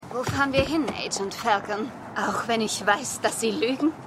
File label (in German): Schauspielerin; Law & Order